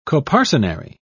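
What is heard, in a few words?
"coparcenary", British English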